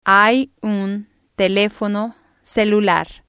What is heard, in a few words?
"hay un telefono celular", spanish